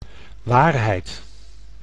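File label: "waarheid", Dutch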